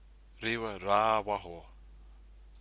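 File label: "riwa rawaho", Maori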